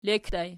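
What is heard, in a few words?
"lekki" ergative plural, Archi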